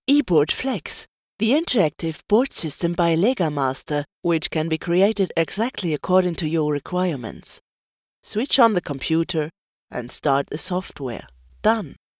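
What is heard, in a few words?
female, English with german accent, narration; accent of germany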